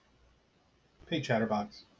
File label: hey chatterbox, en-us